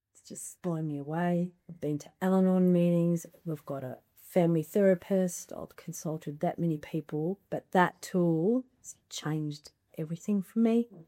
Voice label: Testimonial